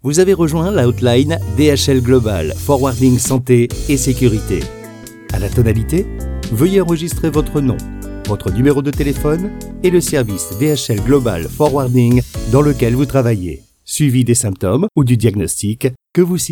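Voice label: French, male, IVR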